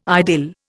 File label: "idyll", Indian